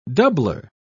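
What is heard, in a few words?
"doubler", British English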